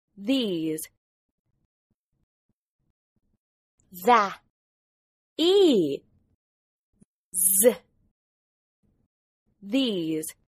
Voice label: "these", English